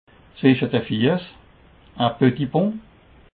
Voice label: "zwische", alsace

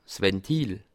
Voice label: "ventil", alsace

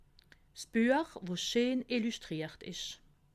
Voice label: "illuschtriert", alsace

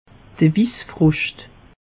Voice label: "wiss froscht", alsace; meteo

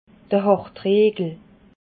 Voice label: "hartriegel", alsace

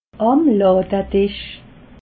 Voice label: "ladedisch", alsace

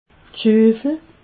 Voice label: "schufel", alsace

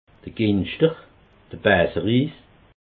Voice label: "ginschter", alsace

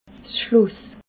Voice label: "schloss", alsace

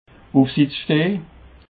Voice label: "ufsitssteh", Alsace

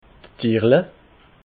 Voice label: "tierle", alsace

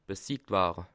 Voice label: "besiegt", alsace

